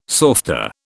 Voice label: "softa", British